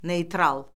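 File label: "neytral", Azerbaijani; Orfoqrafiya Lüğəti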